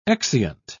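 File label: "exeunt", British English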